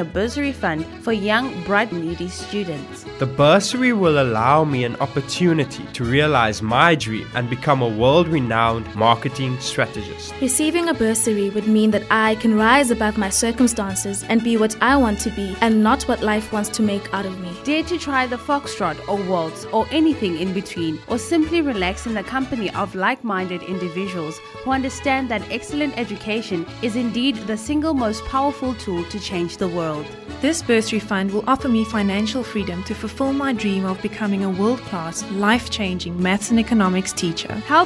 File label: Grand Ball radio ad